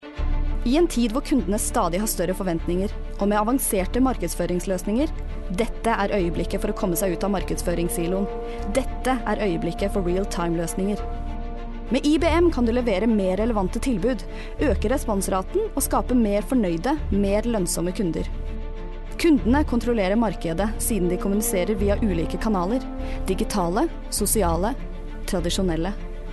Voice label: Corporate